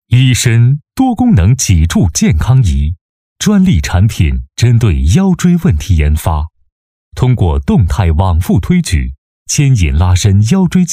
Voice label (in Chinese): 男 专题 多功能治疗仪介绍; 医绅